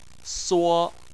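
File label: "suo", Chinese, first tone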